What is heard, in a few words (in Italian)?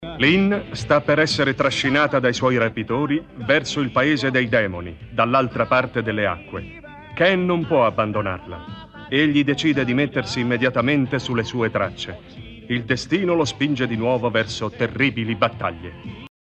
Narratore